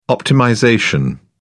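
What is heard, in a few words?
"optimization", British English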